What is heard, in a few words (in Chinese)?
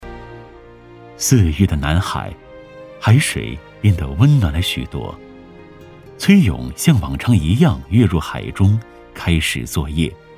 纪录片; 南海